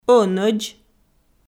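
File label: "ӧнӧдз", Komi, female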